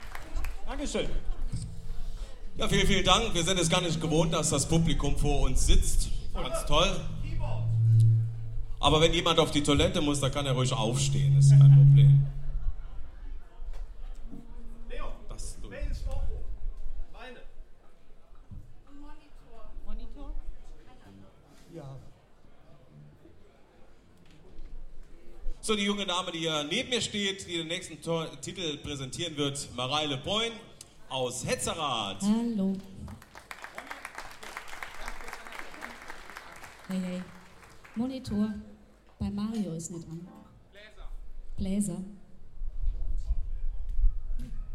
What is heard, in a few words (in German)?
Ansage, Konzert; Boemundhof, Saarburg